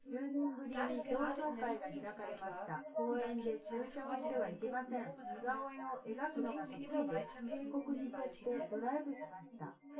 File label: female synth conv 250 ms 5 cm